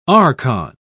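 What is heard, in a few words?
"archon", English, British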